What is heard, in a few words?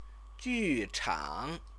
"juchang", Chinese; 剧 场; theatre; noun